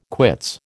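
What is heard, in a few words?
"quits", American English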